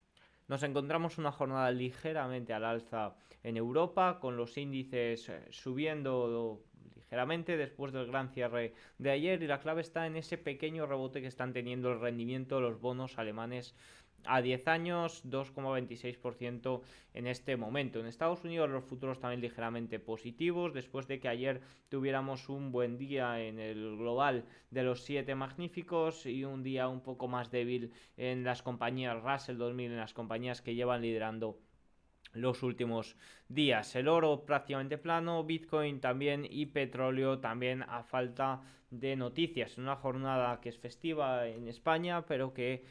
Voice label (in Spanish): radio; noticias bolsa; apertura al alza en europa